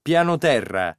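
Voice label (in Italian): "pianoterra", Italian word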